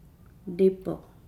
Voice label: "drunk", Nukuoro